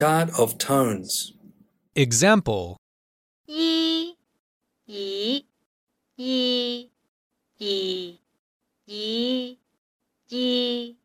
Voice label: Chart of Tones, cantonese